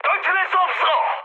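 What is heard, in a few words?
Korean aircraft damaged mood high; War Thunder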